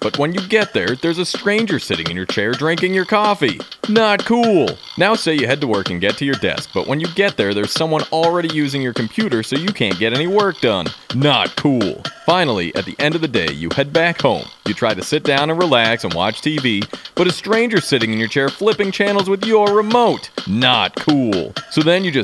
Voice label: invasive species psa; nonnative